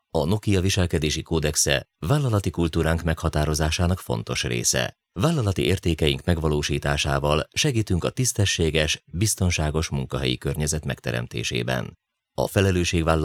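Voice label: hungarian male voice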